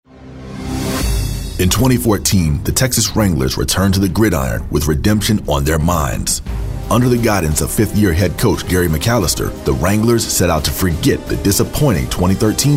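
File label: Sports Documentary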